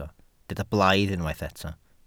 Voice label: "blaidd", Welsh